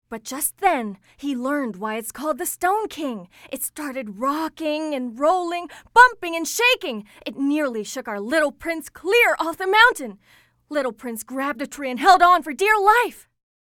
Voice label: English (Expressive, Clear)